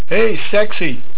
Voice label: "Sexy", Dutch

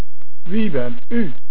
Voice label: Wiebent, Dutch

